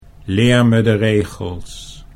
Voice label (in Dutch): "leer me de regels", Dutch